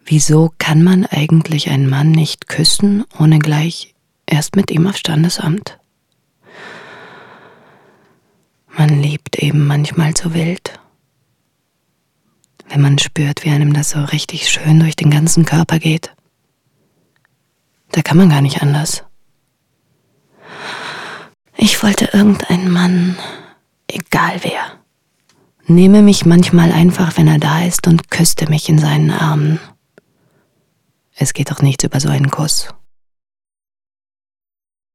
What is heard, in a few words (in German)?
deutsch